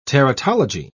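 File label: "teratology", British English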